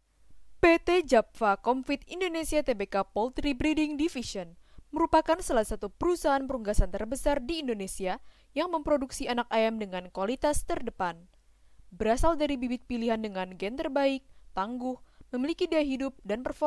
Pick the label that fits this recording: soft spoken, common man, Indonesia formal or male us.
Indonesia formal